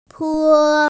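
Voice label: "po1", Mandarin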